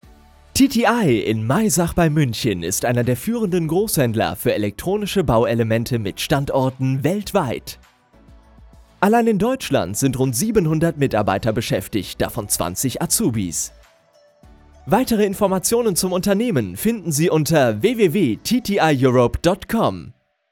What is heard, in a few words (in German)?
imagefilm; tti